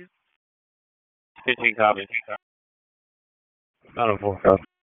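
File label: Public Safety Tactical, p25; wake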